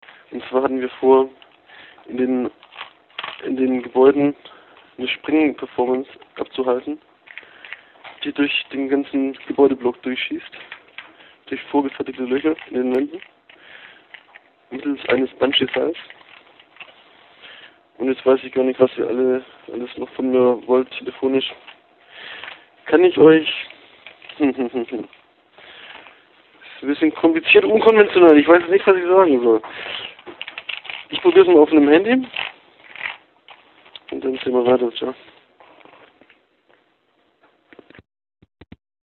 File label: Voicemail